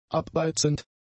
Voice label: "abbeizend", German; adjectives